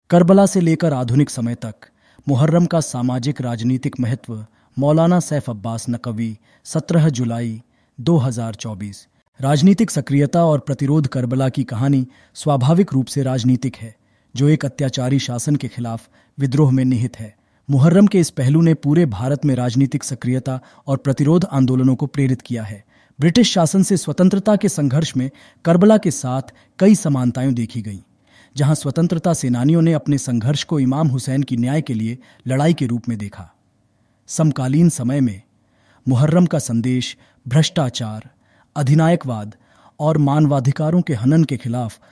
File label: Hindi; Karbali